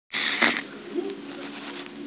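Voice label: "zou3", Mandarin Chinese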